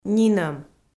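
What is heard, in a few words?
"нинӧм", Komi-Zyrian, female; kpv audio dict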